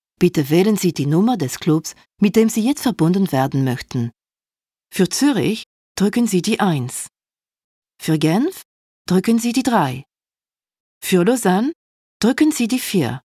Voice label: Allemand (non-maternel); casting de voix-off; taurus-studio

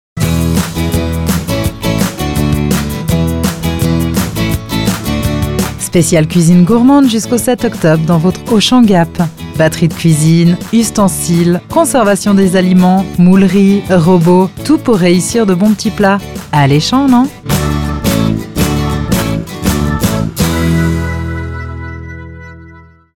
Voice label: Pub, voix-off; casting de voix-off; Auchan

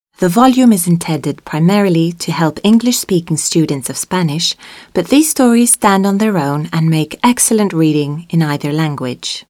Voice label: Anglais; casting de voix-off; Anglais (non-maternel); taurus-studio

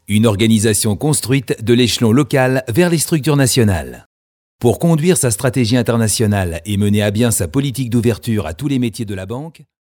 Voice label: Pub Institutionnel, Français; casting de voix-off; taurus-studio

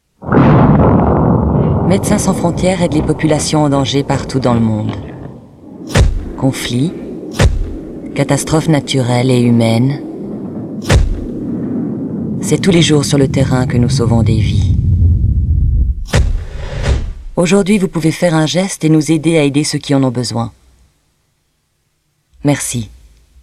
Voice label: FR, voix off, prompts repondeurs; casting de voix-off; taurus-studio

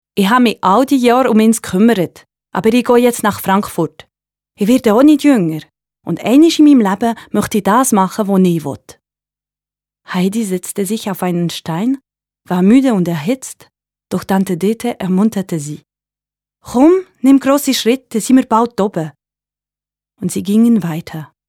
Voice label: suisse allemand, prompts repondeurs voix off; casting de voix-off; taurus-studio

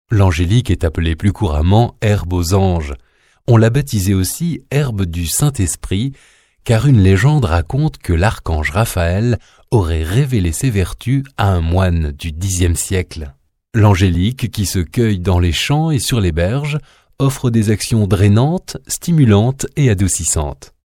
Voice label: Français; casting de voix-off; taurus-studio

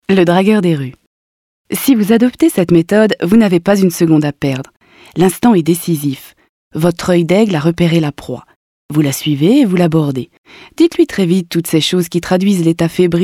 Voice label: FR, voix off, prompts repondeurs; casting de voix-off; taurus-studio

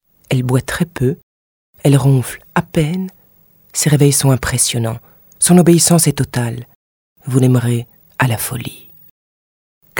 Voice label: voix off, FR; Français; casting de voix-off; taurus-studio

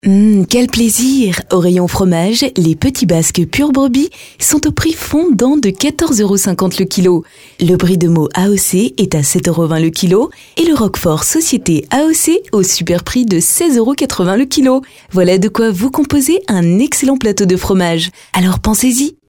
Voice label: publicitaire; casting de voix-off; taurus-studio

